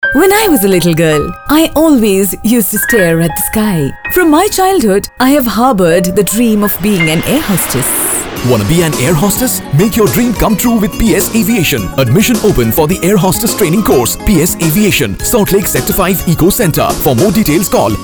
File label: AVIATION ENGLISH; Radio FM